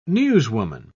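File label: "newswoman", British English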